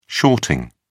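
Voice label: "shorting", British English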